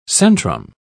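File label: "centrum", British English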